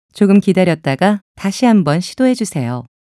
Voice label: timeout error, Korean; Samsung Galaxy S8